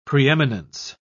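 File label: "preeminence", English, British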